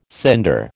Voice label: "cinder", American